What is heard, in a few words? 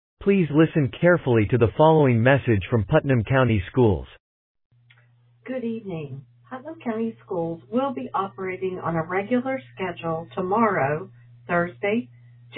Voicemail